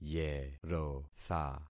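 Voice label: "yerotha", concatenated syllables; Affixing experiment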